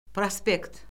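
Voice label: "prospekt", Azerbaijani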